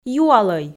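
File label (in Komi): "юалӧй", Komi, female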